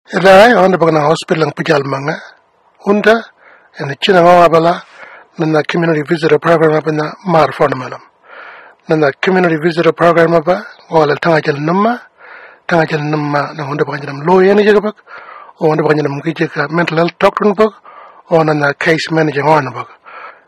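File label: Western Arrernte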